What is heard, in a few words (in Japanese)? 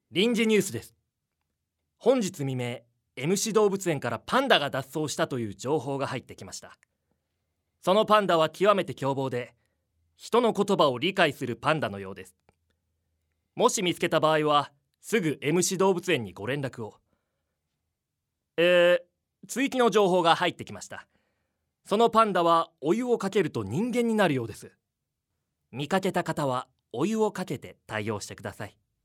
ナレーション